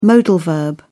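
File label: "modal", British English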